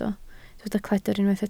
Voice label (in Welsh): "cledr", Welsh